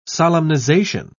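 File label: "solemnization", English, British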